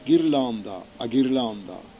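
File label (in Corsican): "ghirlanda", Corsican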